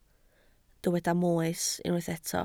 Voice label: "moes", Welsh

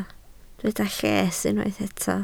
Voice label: "lles", Welsh

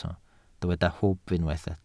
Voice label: "hwb", Welsh

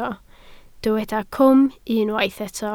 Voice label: "cwm", Welsh

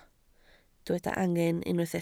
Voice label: "angen", Welsh

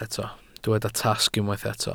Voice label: "tasg", Welsh

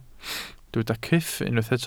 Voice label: "cyff", Welsh

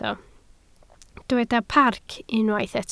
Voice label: "parc", Welsh

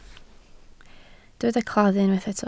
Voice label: "claddu", Welsh

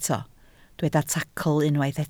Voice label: "tacl", Welsh

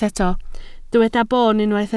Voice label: "boon", Welsh

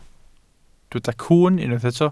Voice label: "cwwn", Welsh

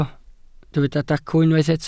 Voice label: "dacw", Welsh

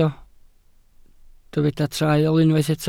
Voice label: "traul", Welsh

